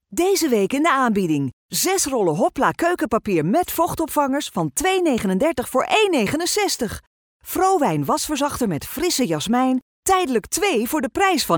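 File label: Dutch, Netherlands, female, voice over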